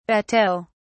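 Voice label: "bateaux", British English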